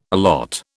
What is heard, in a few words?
"allot", British